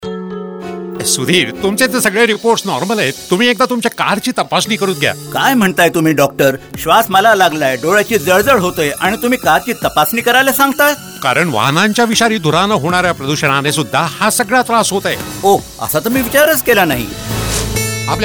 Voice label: Marathi; Air Pollution